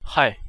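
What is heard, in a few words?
"hai" Chinese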